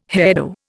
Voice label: "hairdo", Indian